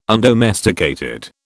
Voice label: "undomesticated", British